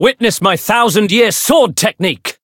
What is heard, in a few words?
chant